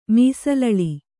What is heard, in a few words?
"ಮೀಸಲಳಿ", Kannada